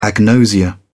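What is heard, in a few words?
"agnosia", British English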